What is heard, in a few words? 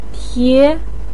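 "tie", Mandarin Chinese syllable, second tone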